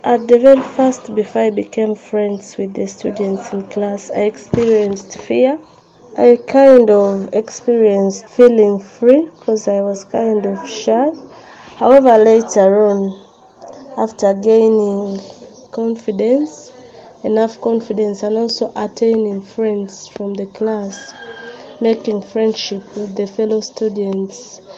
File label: Vox Pop; EduFund; m2m celebrates education success